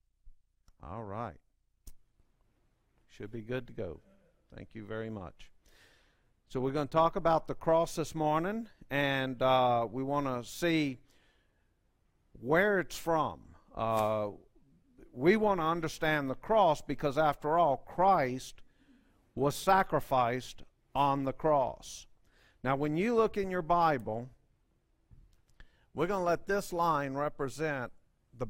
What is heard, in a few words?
Preaching